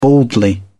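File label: "baldly", British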